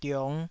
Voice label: "diong5", taiwanese syllable